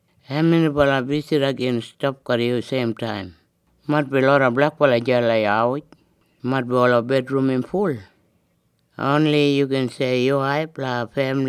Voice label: Kriol; Housing; Eastside